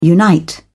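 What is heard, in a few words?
"unite", British English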